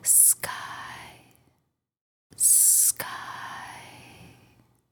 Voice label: Sky Whisper

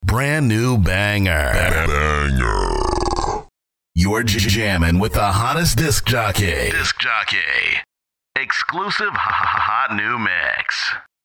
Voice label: Deep Male Voice Dj Drops

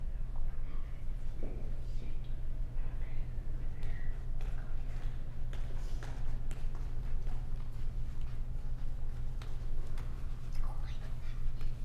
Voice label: Museum Whispering Children

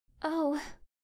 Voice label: "Oh" Female Voice

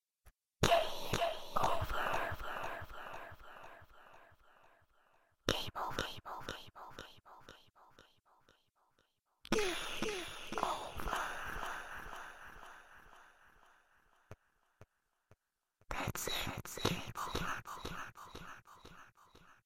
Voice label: Ghost Says The Words Game Over

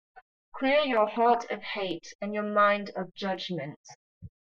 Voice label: Woman S Positive Reinforcement

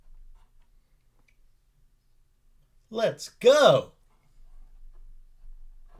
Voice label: Excited Male

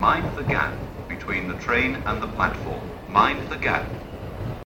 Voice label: Mind The Gap Announcement

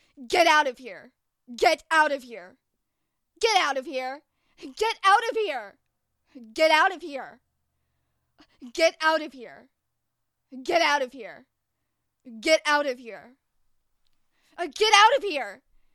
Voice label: Angry Get Out Of Here

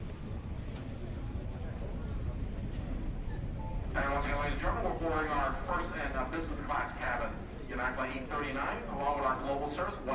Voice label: Boarding Call

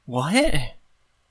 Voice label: Confused Man Saying What

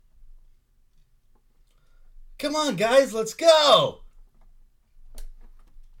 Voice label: Come On Guys Let S Go Excited Male

